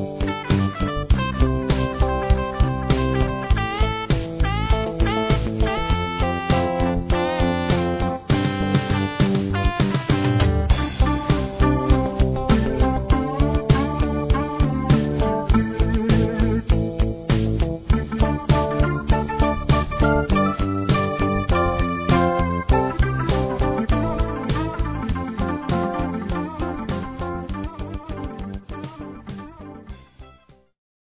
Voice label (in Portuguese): Aniversário Cliente Masculino; Empresarial; Telemensagens 2017